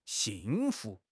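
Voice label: "xing4 fu2", Mandarin Chinese; HSK4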